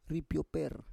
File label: "ripyoper", Roon